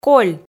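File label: "коль", Komi, female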